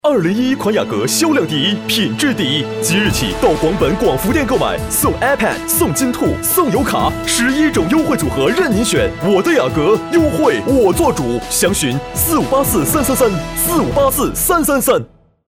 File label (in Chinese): 男国 广告 汽车 活力; 广州本田特惠